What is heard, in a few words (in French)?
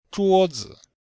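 zhuo zi, Chinese, Vocabulaire et phonétique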